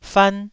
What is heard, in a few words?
"fan1", Taiwanese